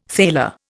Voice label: "thaler", Indian